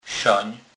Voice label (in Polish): "sen", kurpie